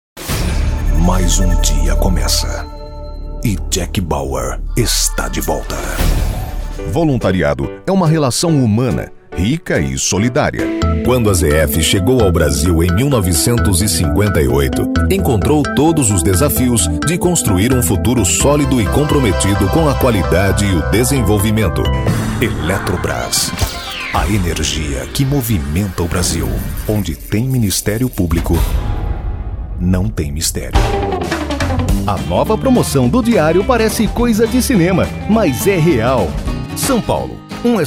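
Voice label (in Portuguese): VOZES MASCULINAS, Animada Informativa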